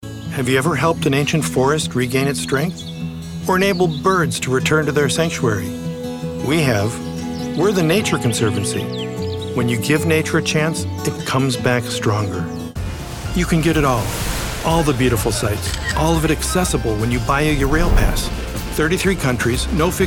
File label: Commercial